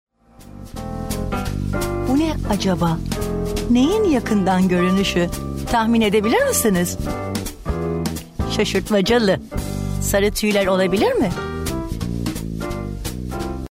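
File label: turkish female voice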